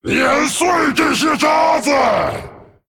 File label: Male Dragon Attack; zh